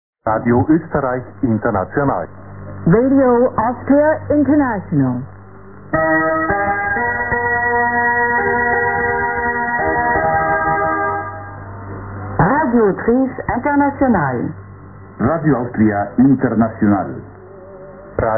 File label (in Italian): tedesco; Radio Austria International